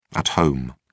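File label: "at home", British English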